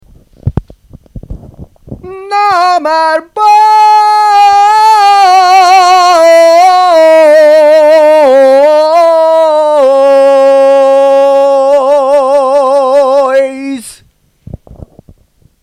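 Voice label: normal voice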